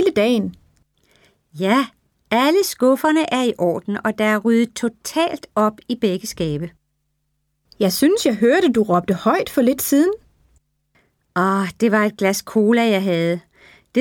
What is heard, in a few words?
Dialogue, Tidying U, Danish; Colloquial Danish 2; Unit 16